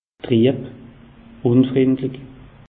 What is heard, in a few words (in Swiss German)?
"trieb", alsace; meteo